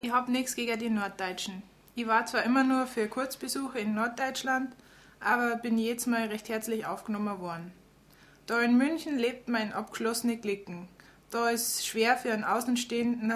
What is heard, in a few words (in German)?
Oberbairisch